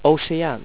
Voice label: ocean, dutch